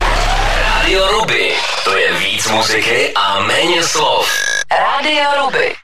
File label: station ident